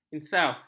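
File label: "insaf", Malay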